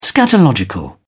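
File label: "scatological", British English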